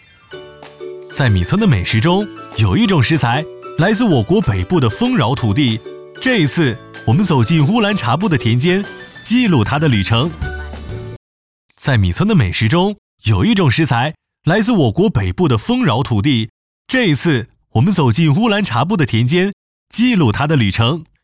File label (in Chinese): 男 年轻活泼; 零食